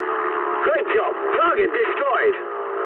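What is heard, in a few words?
English bomb success mood med; War Thunder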